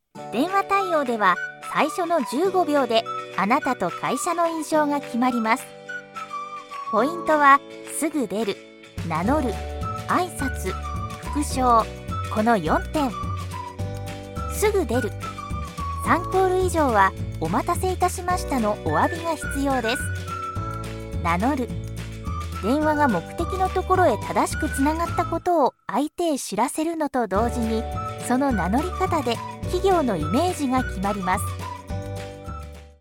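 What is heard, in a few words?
Japanese elearning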